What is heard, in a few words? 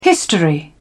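"history", British English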